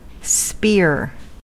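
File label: "spear", US English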